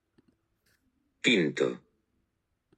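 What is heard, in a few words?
"quinto" Spanish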